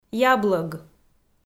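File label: "яблӧг", Komi, female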